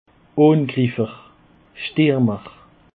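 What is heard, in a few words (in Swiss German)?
"angriffer", Alsatian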